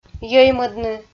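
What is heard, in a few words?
"йӧймӧдны", Komi, female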